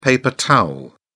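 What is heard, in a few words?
"paper towel", British English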